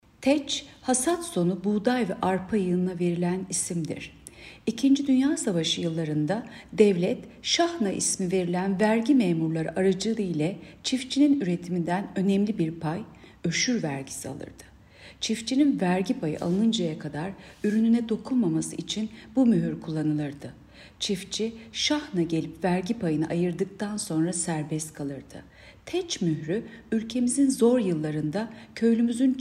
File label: turkce